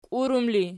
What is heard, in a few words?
"kiurum", archi